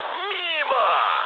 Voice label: bomb failure, mood med, speech ru; War Thunder